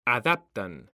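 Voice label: "adaptan", Spanish, male; mekanta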